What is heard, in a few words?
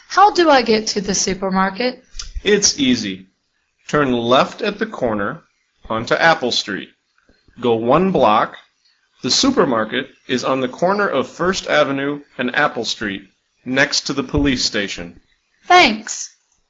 dialog